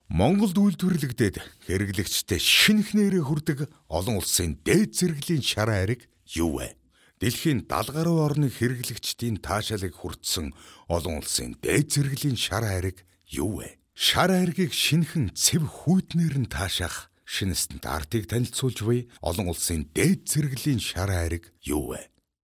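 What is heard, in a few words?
Mongolian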